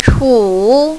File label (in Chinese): "chu3", mandarin, female